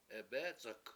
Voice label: to choke, Washo

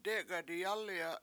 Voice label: "dE7eka7 diyAAli7a" I stand on rock, Washo

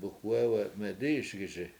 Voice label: "bukWe7we7 medI7iSgiSi" logs float, Washo

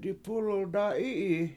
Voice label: My Car Is There, Washo; dipUUlul dAA 7I7iyi

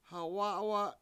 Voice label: "ha7wA7wa7", Washo; eight

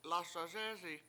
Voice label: lASaSEEsi I know, Washo

